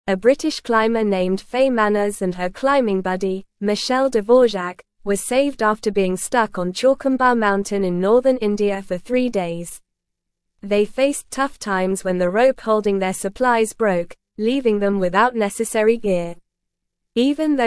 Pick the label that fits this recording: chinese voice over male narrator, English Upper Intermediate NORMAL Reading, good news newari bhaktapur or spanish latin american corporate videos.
English Upper Intermediate NORMAL Reading